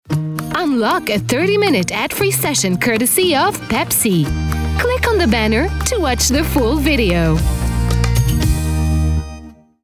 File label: American English App Tag; PepsiAnghami